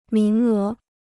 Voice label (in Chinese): "名额", Chinese